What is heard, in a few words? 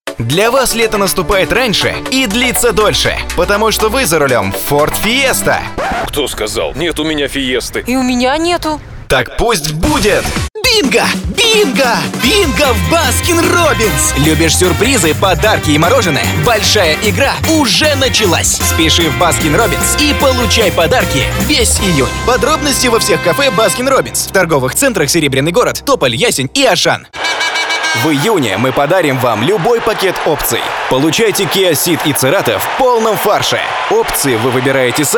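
Russian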